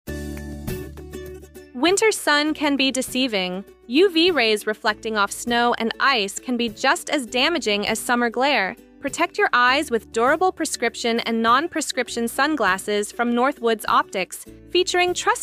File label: FEMALE